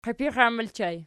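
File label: "qiipixan" ergative plural, Archi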